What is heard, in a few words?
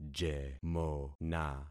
"jemona", concat syllables; Affixing experiment